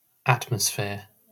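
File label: "atmosphere", British English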